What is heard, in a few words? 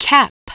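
"cap", English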